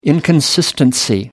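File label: "inconsistency", British English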